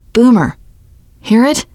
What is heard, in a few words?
heard boomer, teen girl